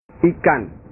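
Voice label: "ikan", Indonesian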